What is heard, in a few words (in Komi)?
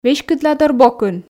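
"веськыдладорбокын", Komi, female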